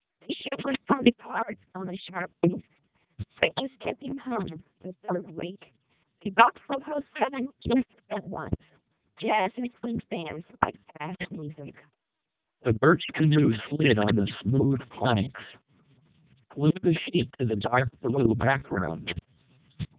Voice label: eng, speechsamples, MELPe 2400